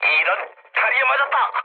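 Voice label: Korean damaged pilot mood med, speech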